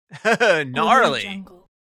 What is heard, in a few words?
surfer saying "gnarly"